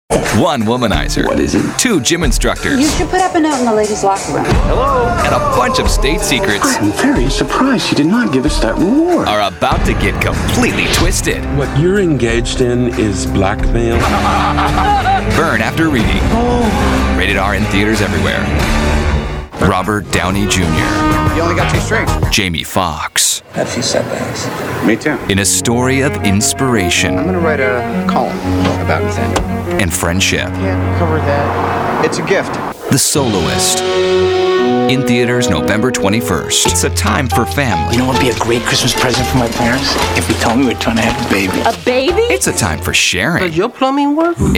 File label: trailer